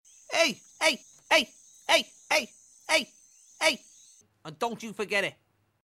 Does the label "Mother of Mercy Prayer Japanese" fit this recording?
No